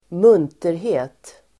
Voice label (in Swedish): "munterhet", Swedish